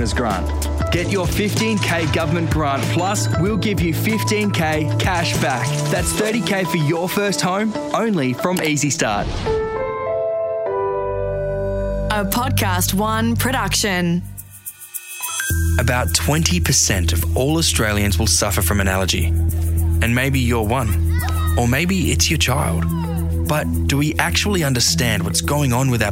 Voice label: Voice Over